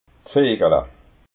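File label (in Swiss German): "vegala", Alsatian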